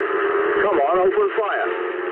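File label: English attack position mood low; speech; War Thunder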